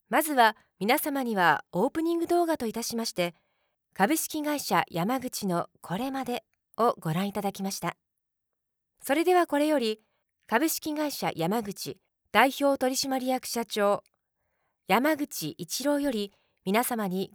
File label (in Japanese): 代表者挨拶（重ため・BGMなし）